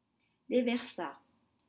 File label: "deversa", French; discharge